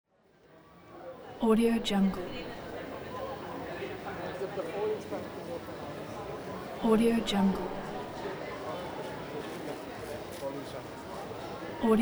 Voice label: people talking